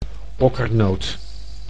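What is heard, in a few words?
"okkernoot", Dutch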